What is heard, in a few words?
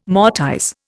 "mortise", Indian